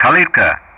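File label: "Kalitka", English; Ka-50; Callsign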